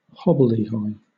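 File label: "hobbledehoy", British English; pronunciations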